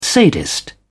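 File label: "sadist", English, British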